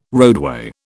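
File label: "roadway", British English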